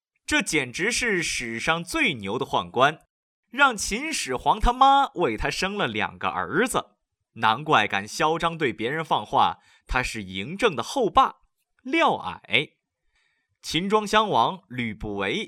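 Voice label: Chinese, male, narration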